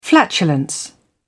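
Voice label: "flatulence", British English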